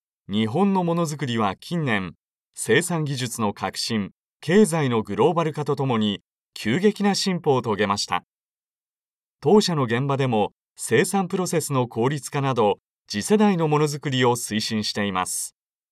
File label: male